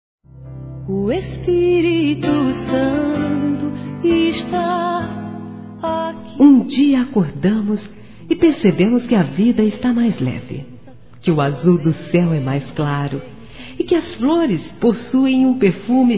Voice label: Evangélico Romantica Feminino; Aniversários

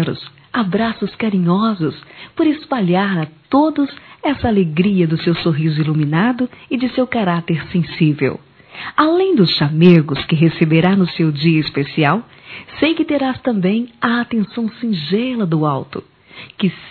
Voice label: Amiga para mãe f, Telemensagens, Dia das Maes; Telemensagens 2017

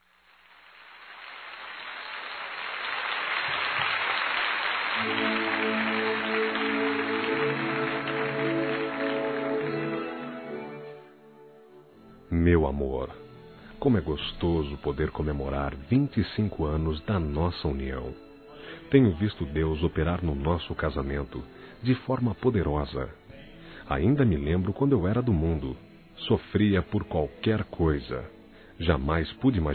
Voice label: Bodas de Prata Evangélico Masculino; ANIV. Evangelico

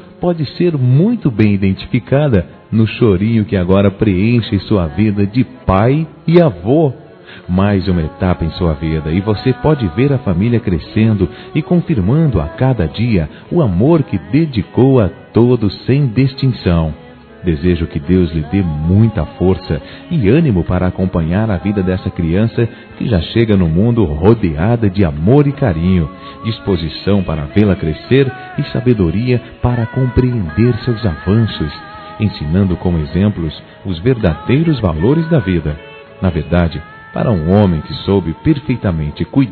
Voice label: Parabéns ao Vovô Masculino; Telemensagens; Felicitações; Telemensagens 2017

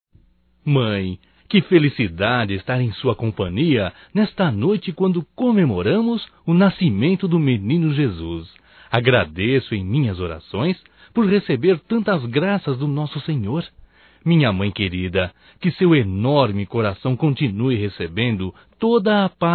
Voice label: Natal e Ano Novo Mãe Masculino; Telemensagens 2017

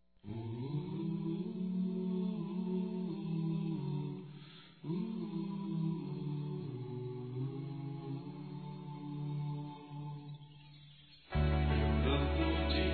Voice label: ROMANTICO (TE GOSTO) M; ANIV. Evangelico

